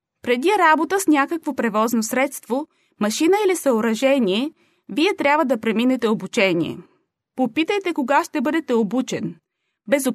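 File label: Bulgarian, female, corporate; voice over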